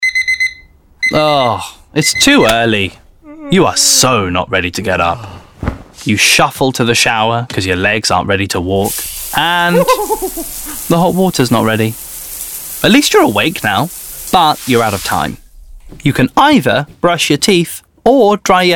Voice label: Commercial; Ambrosia